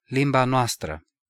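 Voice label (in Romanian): "Limba noastră", Romanian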